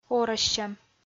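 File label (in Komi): "орӧссьӧм", Komi, female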